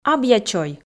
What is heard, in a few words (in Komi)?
"абъячой", f